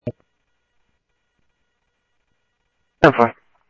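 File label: Sheriff West County, scanner audio trunk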